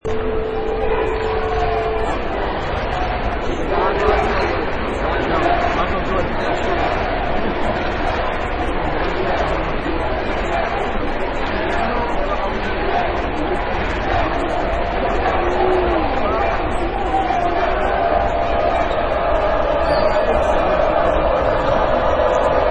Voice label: Arena Announcement

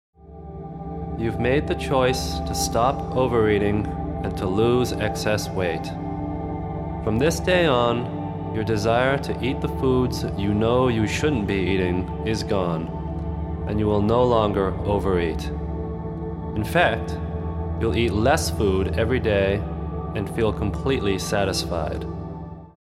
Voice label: weight management hypnosis

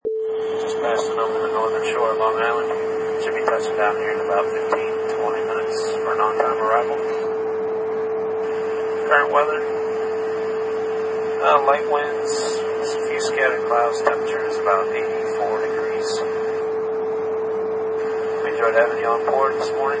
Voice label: Pilot Announcement During Flight

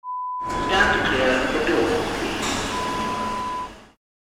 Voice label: Announcement sound

